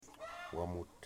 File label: "Wamut", Wamut